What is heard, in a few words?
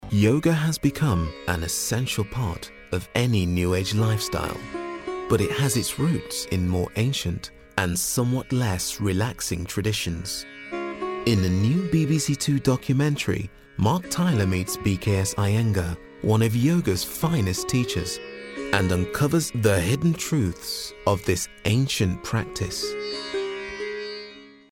Yoga Voice